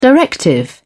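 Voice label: "directive", British English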